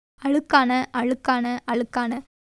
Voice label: Tamil

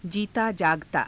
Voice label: "jiitaa jaagataa", Hindi

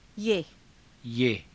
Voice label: "yaha", Hindi

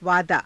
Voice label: "vaadaa", hindi; वादा; [男]約束

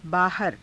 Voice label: "baahara", hindi; [副]外に/へ; बाहर

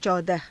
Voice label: "caudaha", Hindi

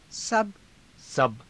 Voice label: "saba", Hindi

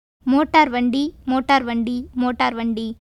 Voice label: Tamil

